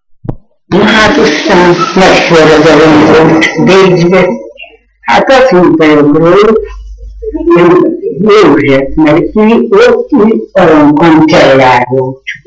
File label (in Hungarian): nyelvjaras gyujtesek